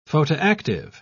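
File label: "photoactive", British English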